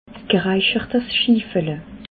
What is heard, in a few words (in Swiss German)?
"geraichertsschiffele", alsace